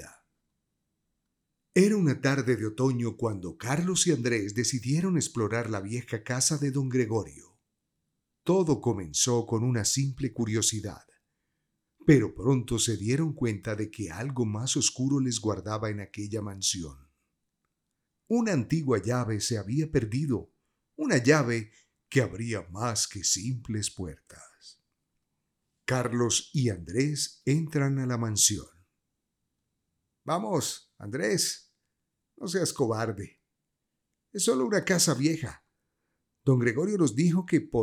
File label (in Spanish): Audiolibro; locutor colombiano